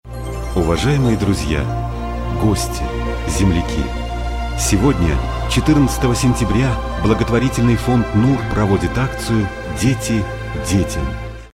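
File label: presentation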